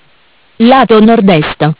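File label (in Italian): "Lato Nord-Est", Italian; Bentel; BLE320; MESSAGGI VOCALI; Audio BGS220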